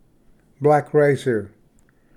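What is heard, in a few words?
"black racer", English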